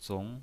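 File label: "zong" Mandarin Chinese pinyin voice, second tone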